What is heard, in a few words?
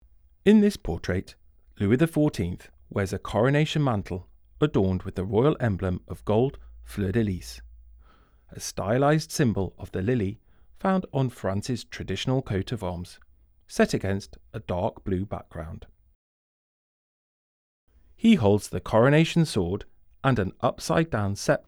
English, audio guide; Louis XIV in Coronation Robe; Hyacinthe Rigaud (1659–1743); 1701–1712; versailles; Forbidden City; Palace of Versailles